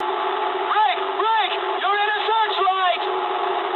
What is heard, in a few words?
eng searchlite mood med, speech eng; War Thunder